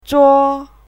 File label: "zhuo1", pinyin